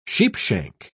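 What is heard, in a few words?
"sheepshank", British English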